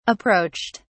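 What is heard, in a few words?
"approached", British English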